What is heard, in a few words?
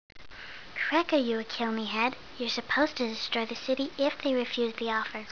girl